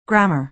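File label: "grammar", American English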